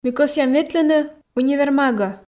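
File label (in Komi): ми кӧсъям ветлыны универмагӧ, Komi, female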